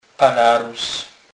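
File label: "palarus", Polish, Kurpie dialect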